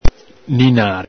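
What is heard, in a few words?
"ninar", Venetian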